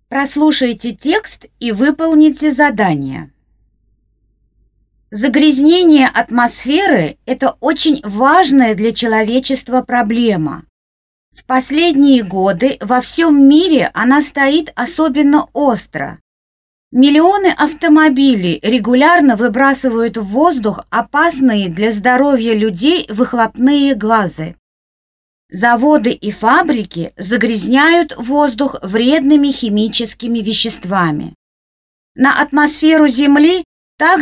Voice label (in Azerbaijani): model rus